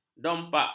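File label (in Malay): "dompak", Malay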